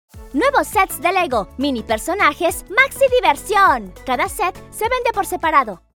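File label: female, Mexican, neutral Spanish Latin American little girl voice; lego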